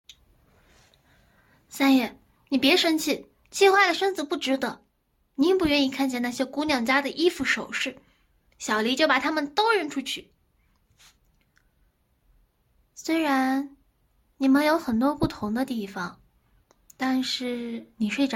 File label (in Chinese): 少女试音